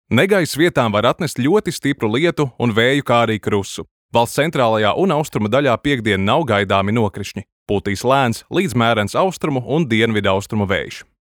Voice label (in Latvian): Infografika LV